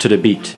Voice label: LoFi Words, LoFi Vocals